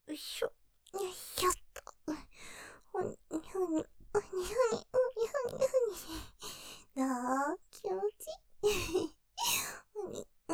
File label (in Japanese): パイズリ◆健気に・よいしょよいしょ♪ふにふに♪; ステレオ